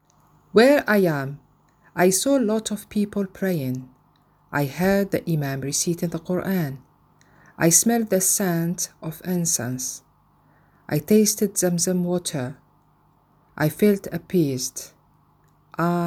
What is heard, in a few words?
English; Where am I